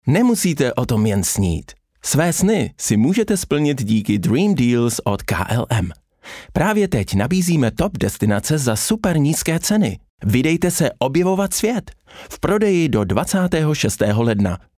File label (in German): Funk Spot; KLM